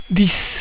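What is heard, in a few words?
"dix", French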